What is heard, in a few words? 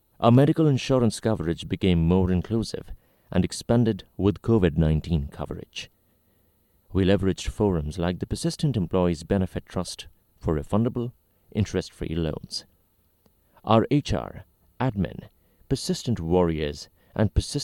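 narration english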